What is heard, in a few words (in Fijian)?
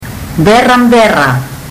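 "berabera", Fijian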